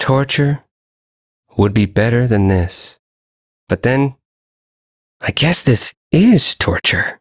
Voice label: Ambient Sedentary, en; Duviri